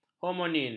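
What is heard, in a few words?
"homonim", Malay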